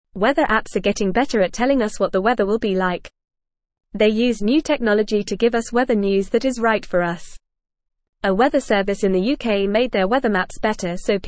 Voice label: English Lower Intermediate FAST Reading; Weather Apps Helping Us Know the Weather

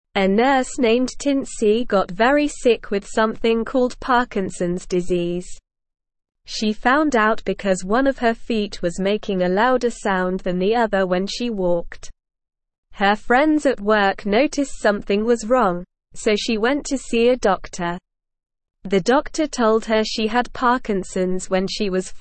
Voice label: English Newsroom Beginner SLOW Reading; Tincy the Nurse with Parkinsons Helps Others

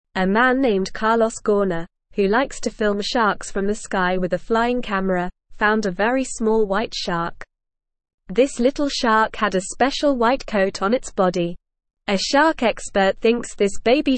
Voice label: English Beginner NORMAL Reading; Carlos Finds Special White Baby Shark with Flying Camera

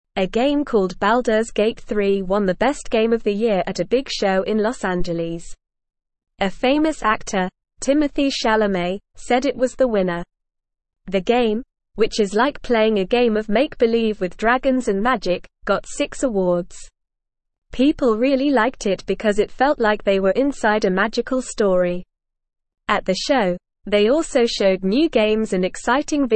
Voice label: English Lower Intermediate NORMAL Reading; Baldurs Gate 3 Wins Best Game Prize

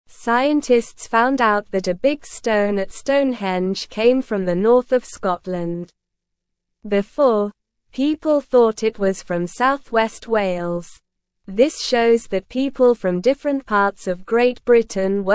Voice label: English Lower Intermediate SLOW Reading; English Newsroom; Big Stone at Stonehenge Came from Scotland Not Wales

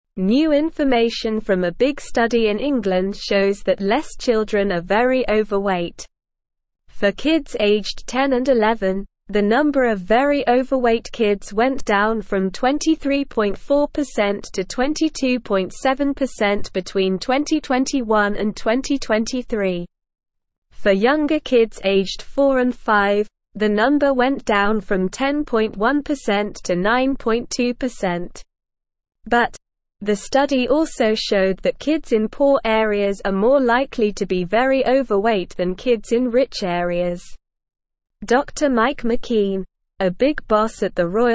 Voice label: English Newsroom Lower Intermediate SLOW Reading; Fewer Kids Very Overweight But Poor Kids More

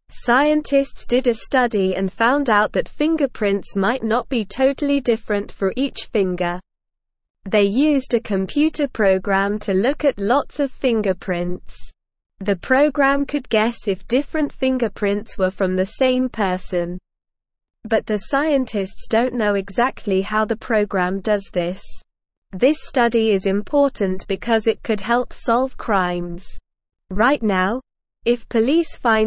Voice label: English Lower Intermediate SLOW Reading; English Newsroom

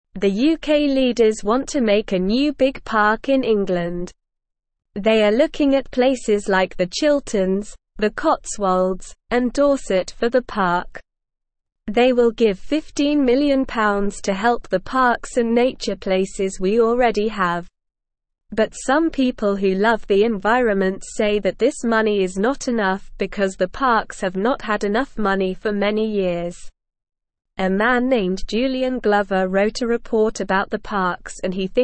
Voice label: Lower Intermediate SLOW Reading, English; English Newsroom; UK Leaders Plan New Park and More Money for Existin

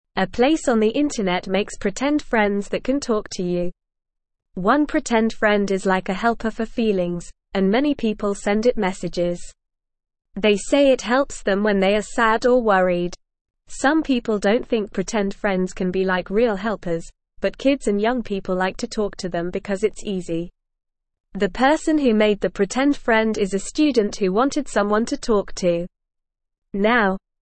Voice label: Beginner NORMAL Reading, English

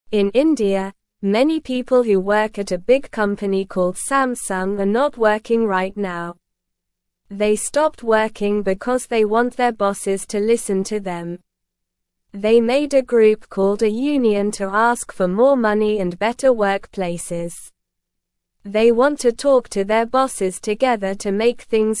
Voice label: English Beginner SLOW Reading; Workers at Samsung in India stop working together

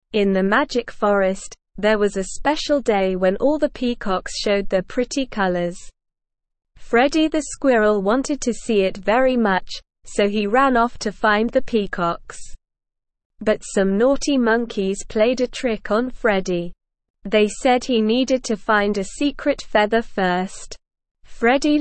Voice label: ESL Short Stories for Kids Beginner SLOW Reading; The Peacock Parade

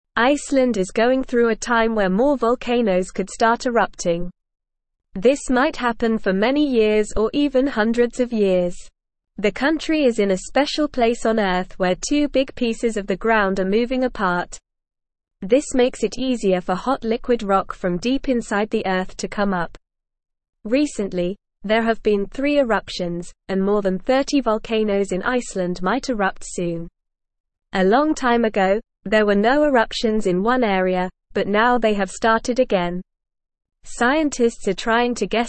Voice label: English Lower Intermediate NORMAL Reading; Icelands Volcanoes Waking Up A Big Mystery

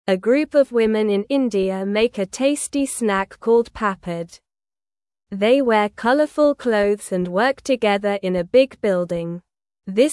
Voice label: English Beginner SLOW Reading; English Newsroom; Women in India Make Tasty Papad Together

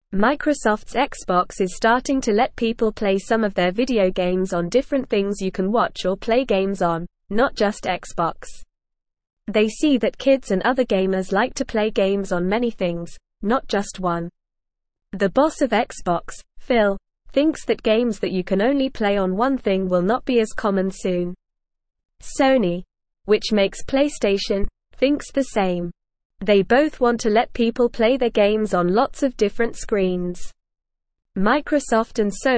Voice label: English NORMAL Reading; Xbox and PlayStation want more people to play games on diff

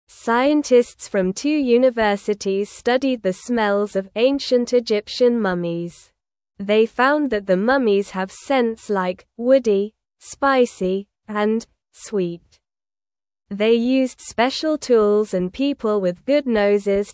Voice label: English Lower Intermediate SLOW Reading; English Newsroom; Mummies Smell Like Trees and Spices from Egypt

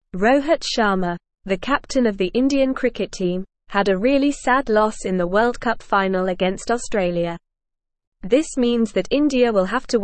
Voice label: English Upper Intermediate NORMAL Reading; English Newsroom; Rohit Sharma The Unsung Hero of Indian Cricket

